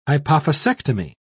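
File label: "hypophysectomy", British English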